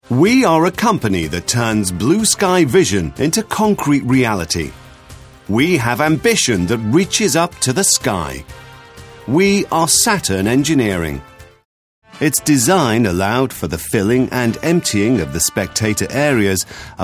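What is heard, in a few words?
British English, narration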